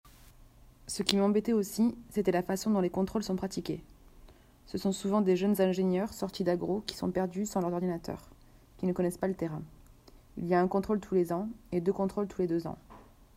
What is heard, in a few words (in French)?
femme; amap segala